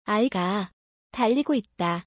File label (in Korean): the child is running, ko